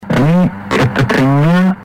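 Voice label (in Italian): "a nì", Italian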